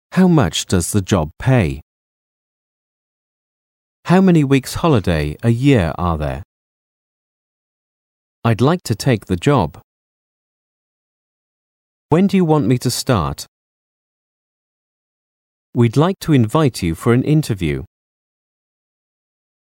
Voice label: English Sentences